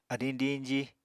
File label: "adiñ diñji", apatani